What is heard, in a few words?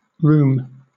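"rheum", British English; pronunciations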